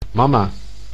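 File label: "mama", Dutch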